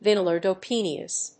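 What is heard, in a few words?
"VINYL PYRROLIDONE", English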